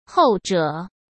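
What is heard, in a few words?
"后者", Mandarin Chinese; chinese-flashcards; hsk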